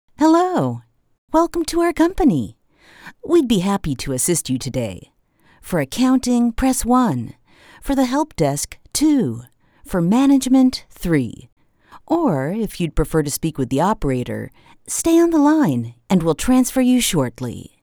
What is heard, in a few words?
Conversational